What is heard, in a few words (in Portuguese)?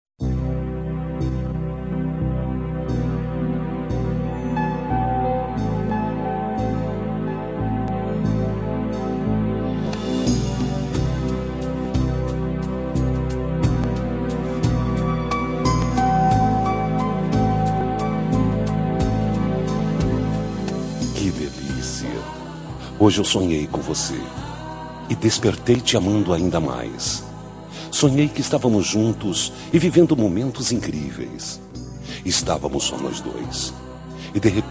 Romântica, M